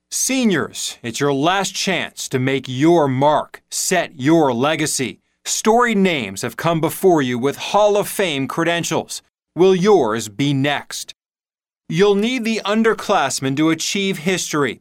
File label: Narration